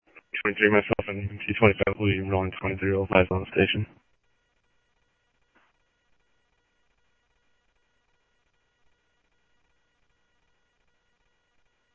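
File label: Sheriff West County, scanner audio; MCSO - WEST; ThompsonPeakScot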